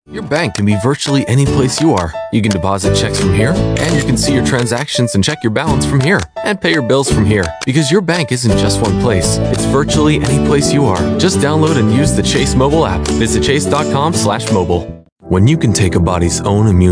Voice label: american accent male